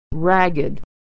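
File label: "ragged", American English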